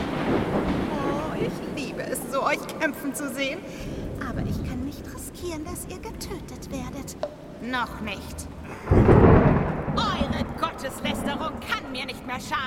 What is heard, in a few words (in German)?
Sprachprobe verrueckte boese Hexe